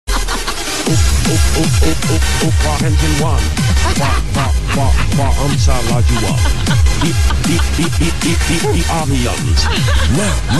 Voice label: Is Calling Inspirational, ringtones